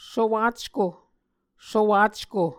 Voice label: "sowacko", Muscogee